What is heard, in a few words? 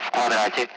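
Speech, Russian, Target grid; Allied Flight; DCS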